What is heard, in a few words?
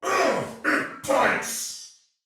Damage Low Chance Soldier Male, Battle; Barks; Dialog